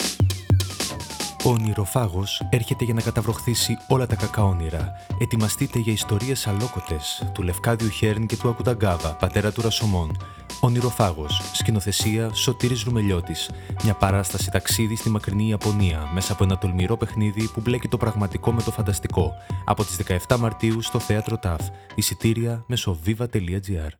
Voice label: radio spot; Ονειροφάγος